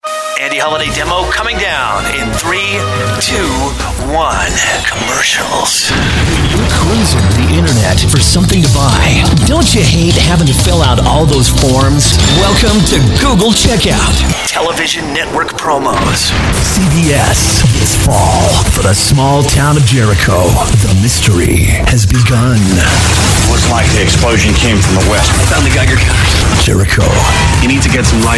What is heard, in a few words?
voice over